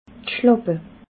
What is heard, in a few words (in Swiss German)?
"schlappe", alsace